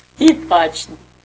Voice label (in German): "hiebratschn", erzgebirgisch